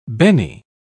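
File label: "benne", English, British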